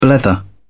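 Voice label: "blether", British English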